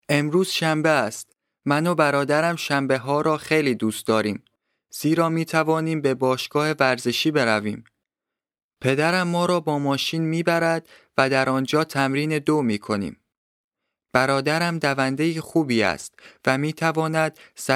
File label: text farsi